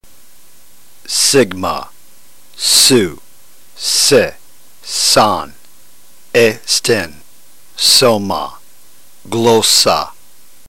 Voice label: drill, ntgreek